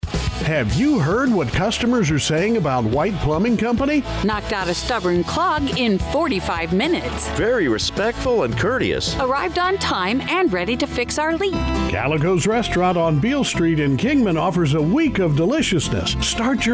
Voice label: Gender Male, Country USA, Style Hard-Medium-Soft Sell, Some Characters; English; Accents; American; Commercial Demo